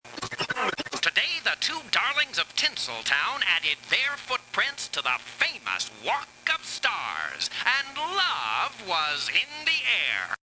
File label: radio